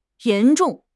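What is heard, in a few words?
"yan2 zhong4", Chinese; 汉语口语新时代-初级下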